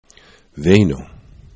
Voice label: "vejno", esperanto

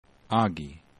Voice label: "agi", esperanto

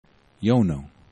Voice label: "jono", esperanto

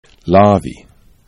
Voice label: "lavi", esperanto

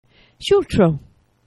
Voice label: "sxultro", esperanto; geneva

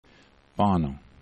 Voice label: "bano", esperanto

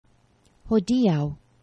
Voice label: "hodiaŭ", Esperanto; geneva

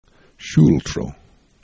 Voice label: "sxultro", esperanto

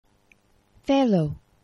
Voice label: "felo", esperanto; geneva

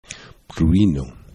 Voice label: "prujno", esperanto

